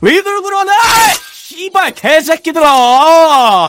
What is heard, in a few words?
scout jeers